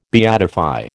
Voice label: "beatify", American